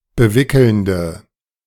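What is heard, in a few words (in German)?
"bewickelnde", German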